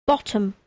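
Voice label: "bottom", English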